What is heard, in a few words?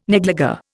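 "negligee", Indian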